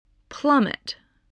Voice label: "plummet", English